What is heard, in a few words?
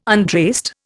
"untraced", Indian